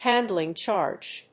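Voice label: "handling charge", English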